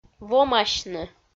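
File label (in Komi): "вомасьны", Komi, female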